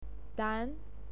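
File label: "dan", Mandarin Chinese, second tone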